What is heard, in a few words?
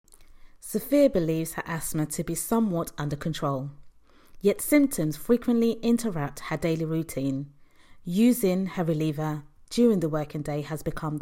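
UK English general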